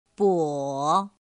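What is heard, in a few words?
"bǒ", chino mandarin; pinyin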